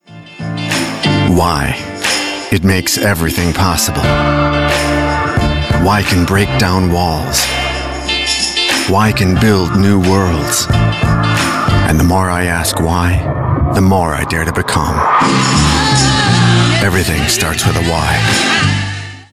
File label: US English